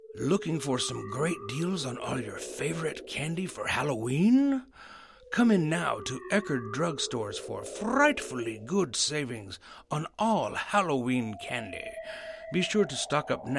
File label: Voice Over